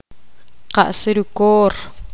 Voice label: poqomam

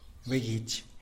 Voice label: "begitx" fly; animals

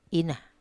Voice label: "ina", Wamesa

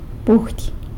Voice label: "budy" chicken; san lucas quiavini

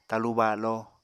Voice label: "talu balo", Apatani

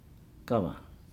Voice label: "black bird", Nukuoro; sp gava

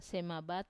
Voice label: "semabat", they are heavy, Wamesa

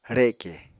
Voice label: "reke", Apatani; Material Culture

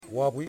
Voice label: "Hair", Yimas

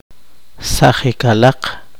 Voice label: poqomchi; tos

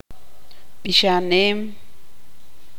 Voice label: tzutujil; cantar

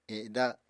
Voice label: "eda", Apatani

